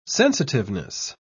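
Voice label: "sensitiveness", British English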